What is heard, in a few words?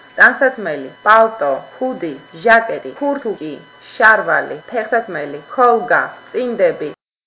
georgian; clothes